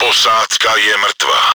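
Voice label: crew deactivated, чешской озвучки; Posádka je mrtvá.; Экипаж мёртв.; Czech; World of Tanks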